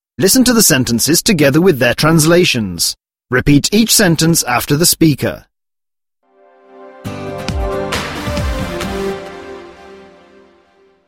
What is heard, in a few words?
Polish Conversations Polski Konwersacje